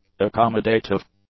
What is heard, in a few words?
"accommodative", english; adjectives